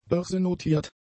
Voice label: "börsennotiert", German; adjectives

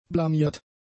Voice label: "blamiert", German; adjectives

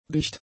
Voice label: "dicht", german; adjectives

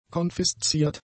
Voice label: "konfisziert", German; adjectives

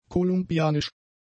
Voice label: "kolumbianisch", German; adjectives

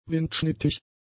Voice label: "windschnittig", German; adjectives

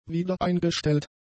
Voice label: "wiedereingestellt", German; adjectives

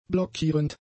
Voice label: "blockierend", German; adjectives

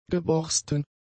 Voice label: "geborsten", German; adjectives

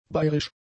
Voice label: "bayrisch", German; adjectives

